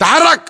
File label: rsp moving, english voiceovers